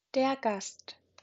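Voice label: "Gast", German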